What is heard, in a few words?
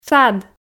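"сад", Komi, female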